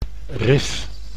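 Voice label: "rif", Dutch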